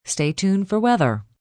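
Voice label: Tuned for Weather, Broadcast, announcer, breakaway, female; Broadcast Sound Effects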